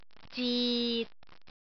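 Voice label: "zit6", Cantonese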